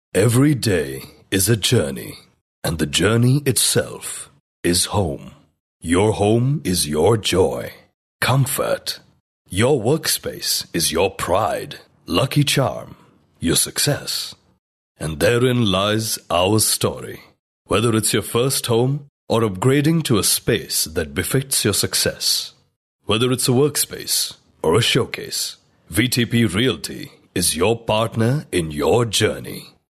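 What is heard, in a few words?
Marathi, male, English; indian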